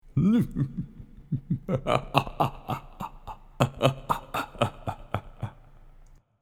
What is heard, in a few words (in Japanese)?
魔王の声; 魔王の笑い声[4]